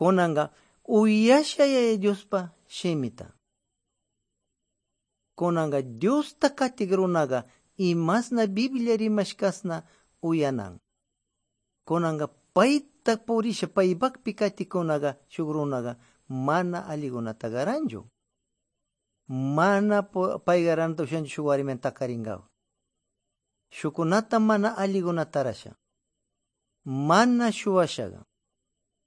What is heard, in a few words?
good news quichua de pastaza